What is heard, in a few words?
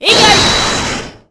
(Japanese) "igiari"; Himiko